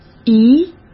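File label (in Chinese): "姨", Mandarin Chinese; hsk; chinese flashcards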